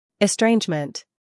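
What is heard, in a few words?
"humor", British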